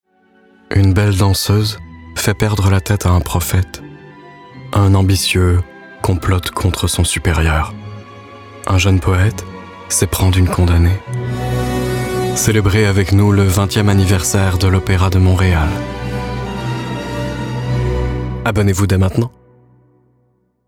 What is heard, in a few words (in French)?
pub ann mystérieuse sophistiquée qui raconte; OperaMTL